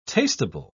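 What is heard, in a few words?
"tastable", British English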